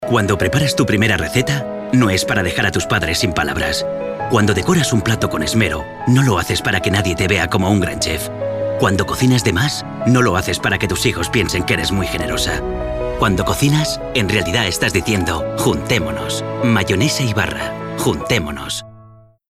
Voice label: male spanish food comercial; ideasonora

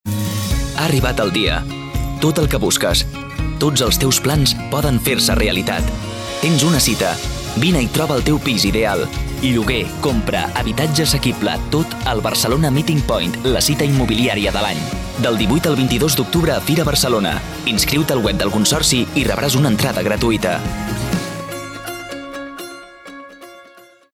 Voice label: male catalan event comercial; ideasonora

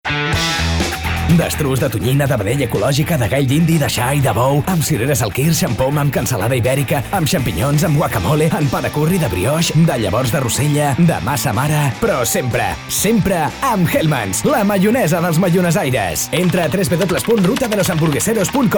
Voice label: male catalan food comercial

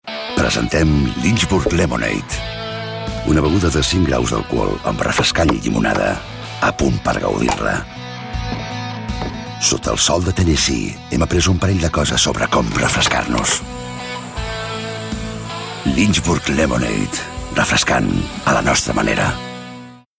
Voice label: male catalan drink comercial

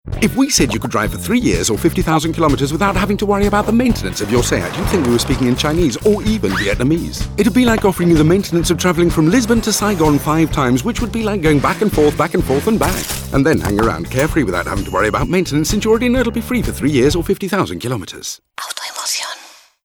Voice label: male british english car comercial

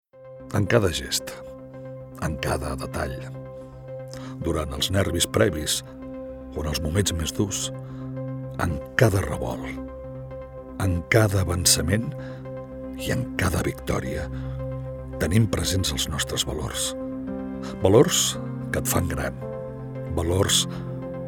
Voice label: male catalan letter comercial; ideasonora

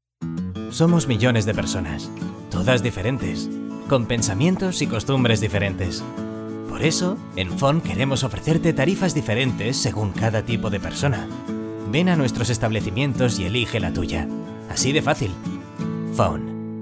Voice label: male spanish phone comercial; ideasonora

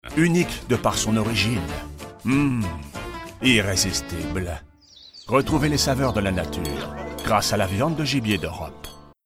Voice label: male french meat comercial; ideasonora

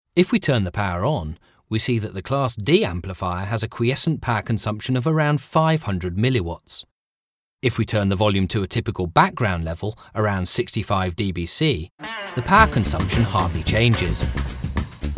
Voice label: male british english amp comercial; ideasonora

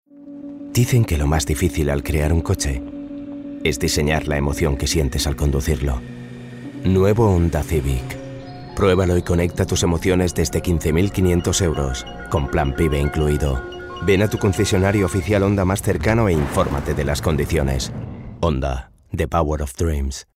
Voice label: male spanish car comercial; ideasonora

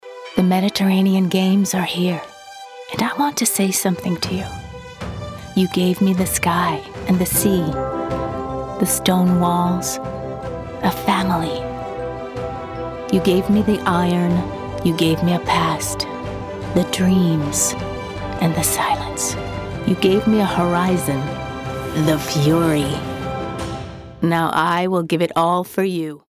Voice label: female american english comercial

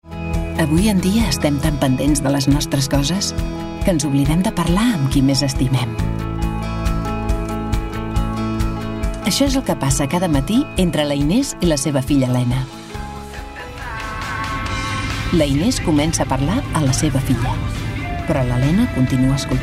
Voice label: female catalan family comercial; ideasonora

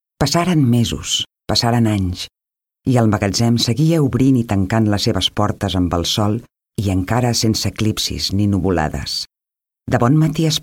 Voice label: female catalan book comercial; ideasonora

